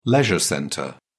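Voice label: "leisure centre", British English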